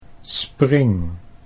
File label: "spring", Dutch